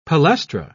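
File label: "palaestra", British English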